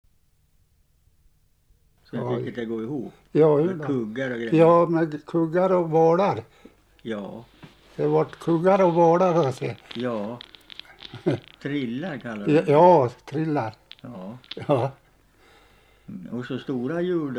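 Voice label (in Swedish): Segersta Hälsingland, dialekter; Svenska sagor; Folkminnen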